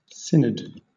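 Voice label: "synod", British English; pronunciation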